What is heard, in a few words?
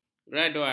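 "graduan", Malay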